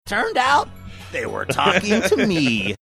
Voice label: funny